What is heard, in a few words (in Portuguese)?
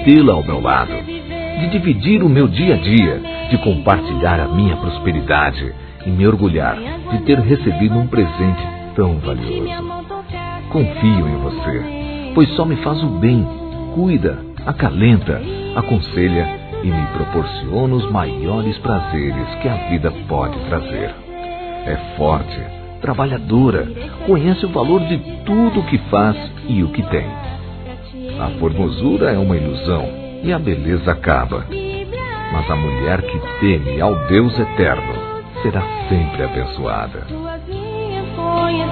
HOMENAGEM A ESPOSA M, Telemensagens, Gospel, masc